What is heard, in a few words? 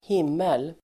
"himmel", Swedish